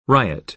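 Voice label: "riot", American English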